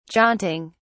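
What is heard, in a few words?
"jaunting", British English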